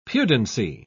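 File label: "pudency", British English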